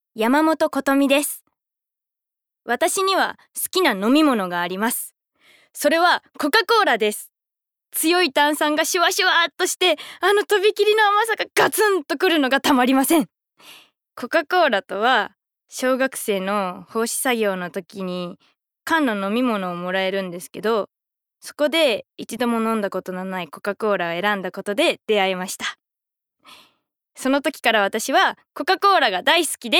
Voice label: Freetalk, female voice